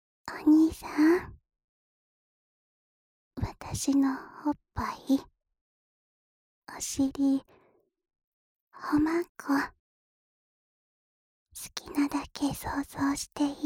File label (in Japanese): しこしこボイスループ, ASMR; 千尋Ver